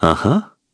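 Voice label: Vox Human Think, Korean; voices; heroes; Shakmeh; kingsraid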